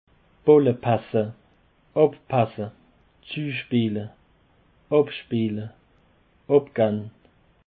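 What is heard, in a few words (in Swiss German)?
"ballepasse", Bas Rhin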